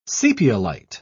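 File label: "sepiolite", British English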